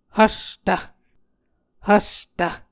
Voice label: "hvs tv", Muscogee

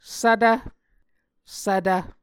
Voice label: "svtv", Muscogee

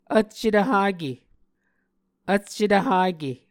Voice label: "vccetv hake", Muscogee; Mvskoke Words with Repeated Consonants; Onvkv Ohhvyayices; A Dictionary of Creek/Muskogee; SIL International

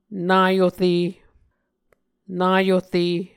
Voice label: "naore", Muscogee

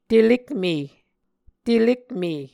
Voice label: "telekme", muscogee

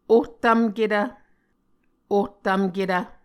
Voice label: "ohtvmketv", Muscogee

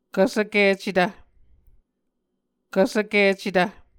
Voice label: "kvsvkicetv", Muscogee; Mvskoke Words with Voicing Consonants; A Dictionary of Creek/Muskogee; SIL International; Onvkv Ohhvyayices

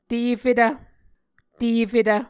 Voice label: "tefetv", Muscogee

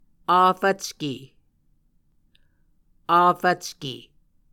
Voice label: "afvcke", Muscogee

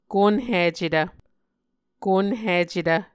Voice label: "konhicetv", Muscogee

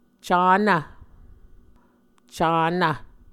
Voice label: "canv", muscogee

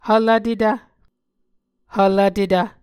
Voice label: "hvlvtetv", Muscogee

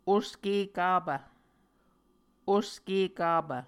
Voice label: "oske kapv", Muscogee

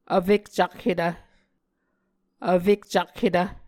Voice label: "vfekcakhetv", Muscogee

